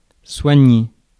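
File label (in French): "soigner", French; Mots francais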